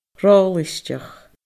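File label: "rolaisteach", Scottish Gaelic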